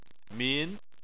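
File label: "min", Chinese, second tone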